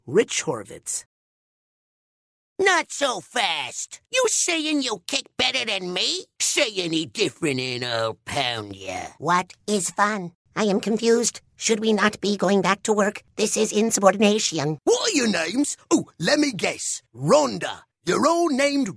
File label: animation; Innovative LA